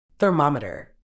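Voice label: "thermometer", British English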